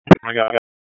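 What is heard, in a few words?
Sheriff's Office Dispatch 1, p25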